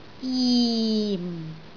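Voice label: "jim4", Cantonese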